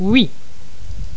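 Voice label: "oui", French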